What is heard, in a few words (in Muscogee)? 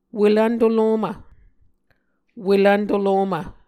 "welvn tolomv", Muscogee